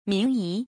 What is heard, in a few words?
"mingyi", pinyin